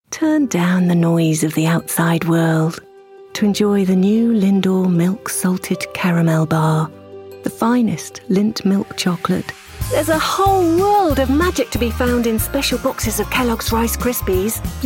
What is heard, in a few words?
english british commercial